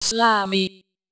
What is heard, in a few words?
slami, espeak-ng; jicmu gismu